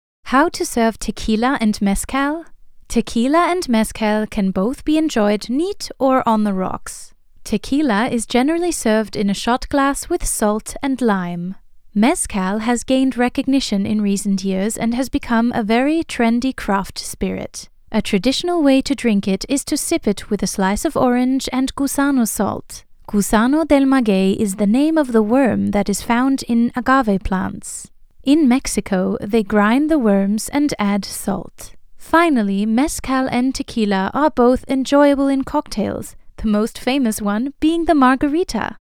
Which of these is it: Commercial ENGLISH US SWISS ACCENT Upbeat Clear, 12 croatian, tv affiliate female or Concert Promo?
Commercial ENGLISH US SWISS ACCENT Upbeat Clear